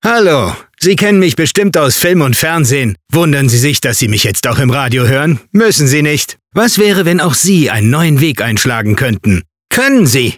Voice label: Radiospot